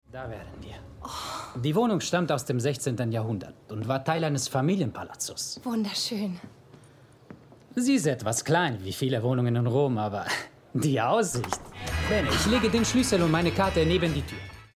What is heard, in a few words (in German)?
Lip-Sync (Synchron), German, Akzent: Italian; Emily in Paris